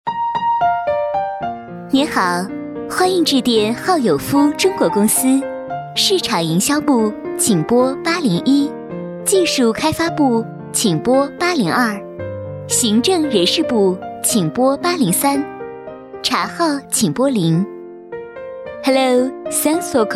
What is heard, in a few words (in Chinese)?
彩铃 中英文 彩 甜美